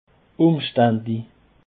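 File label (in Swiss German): "umstaendlich", Alsatian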